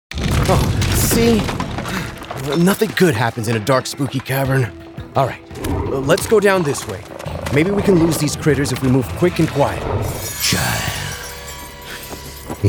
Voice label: Gaming, Professional Voice Actor; VOICE ACTOR * ENGLISH / SPANISH / ITALIAN